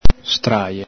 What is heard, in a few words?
"straje", Veneto